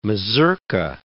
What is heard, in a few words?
"mazurka", English